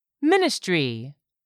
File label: "ministry", English; 3000 words